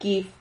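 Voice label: "kin", syllable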